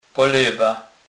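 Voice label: "polewa", Polish, Kurpie dialect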